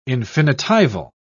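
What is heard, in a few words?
"infinitival", British English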